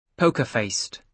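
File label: "poker faced" English, British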